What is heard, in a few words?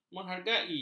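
"menghargai", Malay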